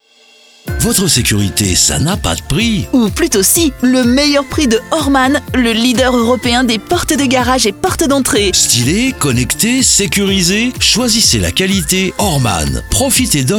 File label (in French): pub radio; hormann